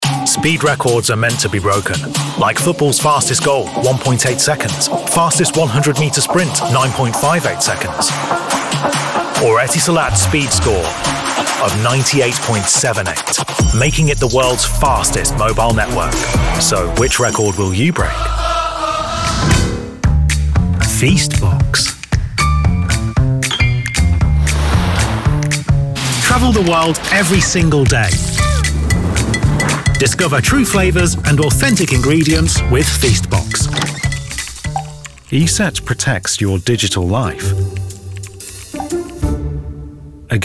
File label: TV Commercial